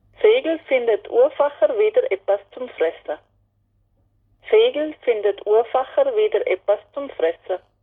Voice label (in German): Mundart; tannheimertal